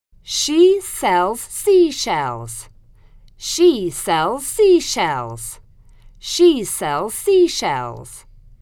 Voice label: A tongue twister